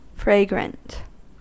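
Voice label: "fragrant", American English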